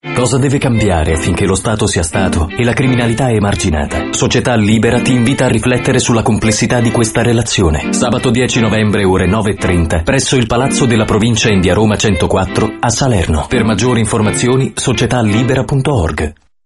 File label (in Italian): spot; statoecriminalita